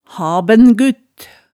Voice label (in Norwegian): "habengut", hemsedalsmaalet